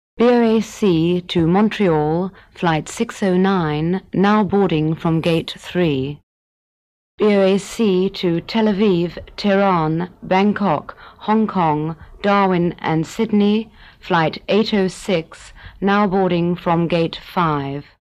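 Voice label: Airport; Flight Announcements; Loudspeaker Announcements Personal Type.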